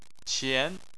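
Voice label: "qian", Chinese, tone 2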